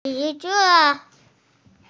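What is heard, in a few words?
"bi2 zi5", Mandarin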